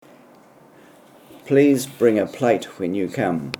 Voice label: please bring a plate when you come, kiwi english